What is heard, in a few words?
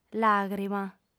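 "làgrima", female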